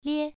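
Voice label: "lie", tone 1, pronunciation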